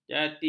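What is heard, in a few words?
"jati", Malay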